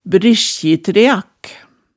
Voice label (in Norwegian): "briskjitreak", hemsedalsmaalet tale